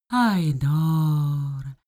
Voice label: female talk elfish